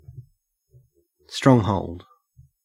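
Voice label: "stronghold", Australian English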